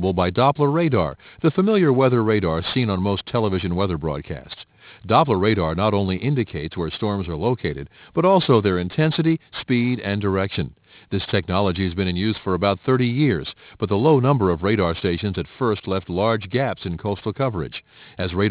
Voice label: Marine Forecast; Sea Talk